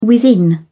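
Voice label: "within", English, British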